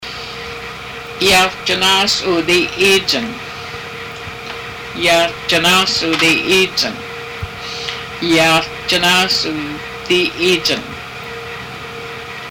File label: clan introduction female, haida language; Janáas uu díi íijang; (Female) I am